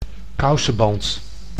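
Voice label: "kousenband", Dutch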